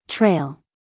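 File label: "trail", American English, female; everyone-can-use-english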